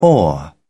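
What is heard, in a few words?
"oar", English, British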